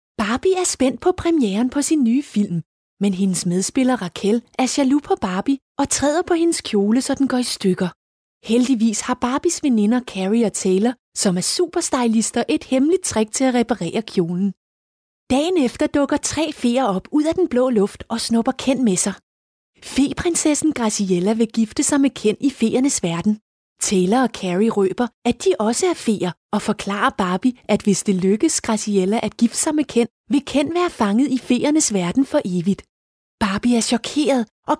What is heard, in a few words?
danish female kids commercial; barbie